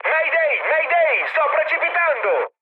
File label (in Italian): aircraft destroyed, mood med, speech it